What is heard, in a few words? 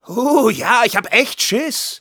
taunts, de